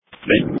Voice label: Police Tactical Encrypted